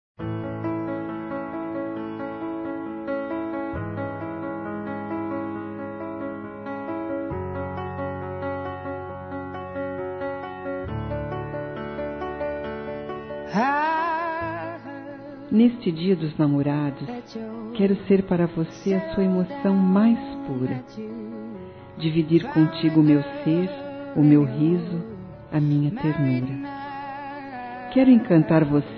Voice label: NEUTRA F; Telemensagens; Dia Dos namorados; Telemensagens 2017

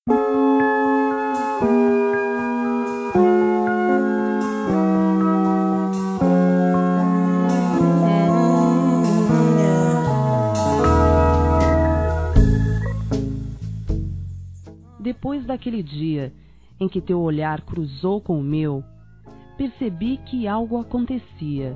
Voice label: ROMANTICA F; Telemensagens; Românticas

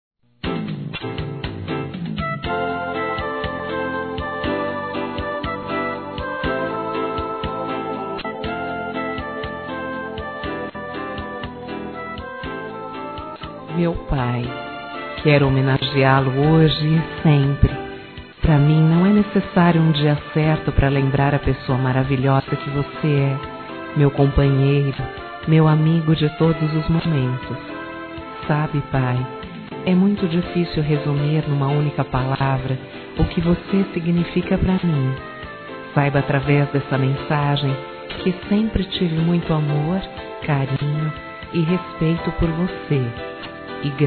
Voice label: PAI F; fem

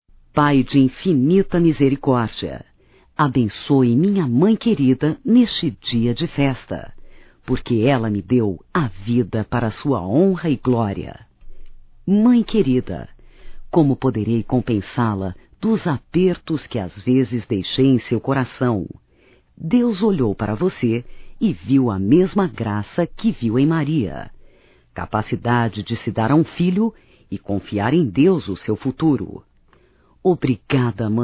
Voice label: Aniversário Feminino, Telemensagens; Telemensagens 2017; Aniversarios

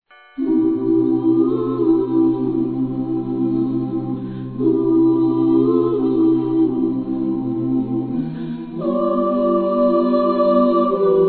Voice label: Natal Novo Cliente Feminino; Telemensagens; Natal e Ano novo

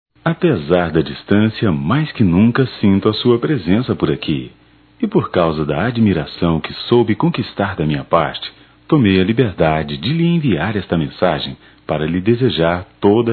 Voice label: Natal distante neutro; masc; Telemensagens 2017; Natal & Ano Novo

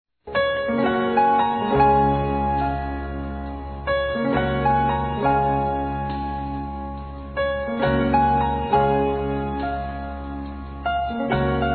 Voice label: Congratulações f; Telemensagens; Parabenização; fem

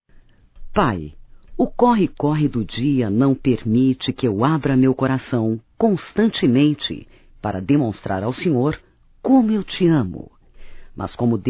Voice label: Aniversário Pai Feminino; Aniversarios; Telemensagens; Telemensagens 2017

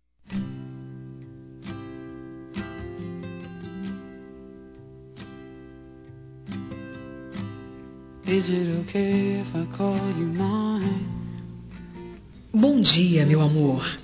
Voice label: BOM DIA ROMANTICO-F

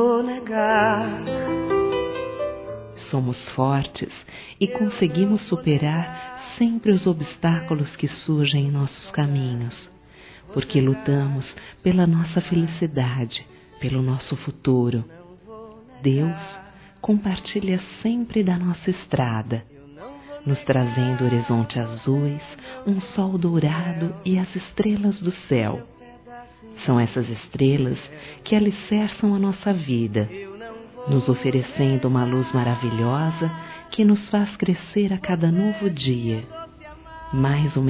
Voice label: ESTOU GRAVIDA NOVAMENTE, fem

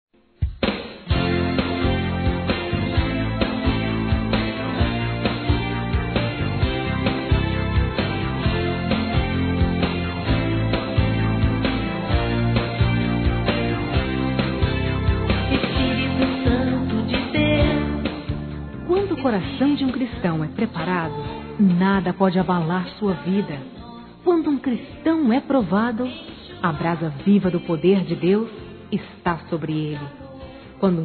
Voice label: Aniversário Neutro Evangelico FEMININO; Aniversários

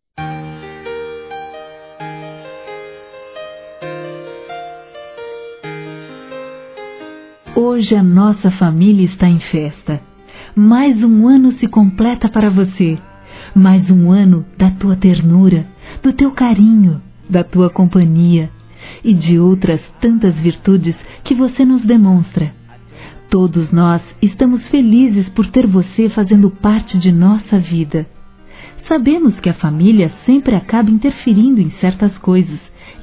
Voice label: ANIV FAMILIA ENVIA (F)

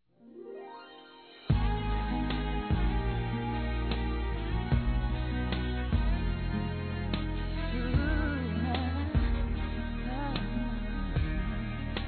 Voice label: Aniversário Atrasado Feminino; Telemensagens 2017; Aniversários; Aniversário atrasado e antecipado

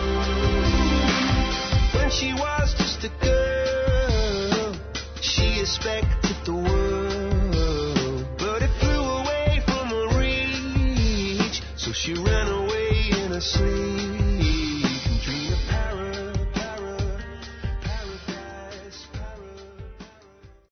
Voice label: Dia Internacional da Mulher Amiga Masculino; Telemensagens 2017

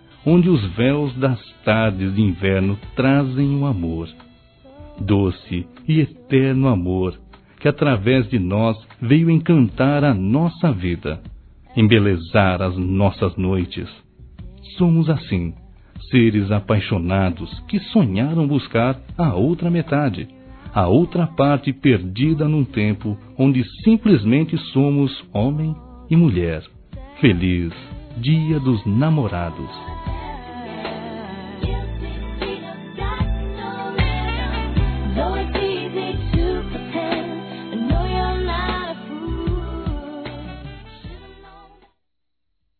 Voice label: alma gêmea m, Telemensagens, masc; Telemensagens 2017

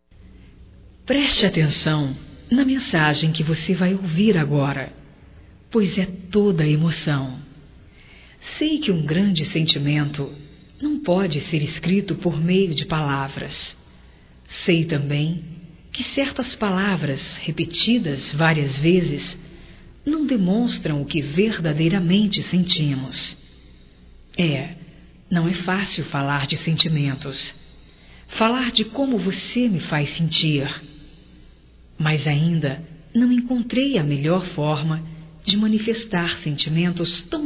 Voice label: ROMANTICA GERAL, Românticas fem

